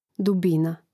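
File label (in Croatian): "dubina", Hrvatski; mreznik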